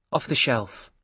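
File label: "off the shelf", British English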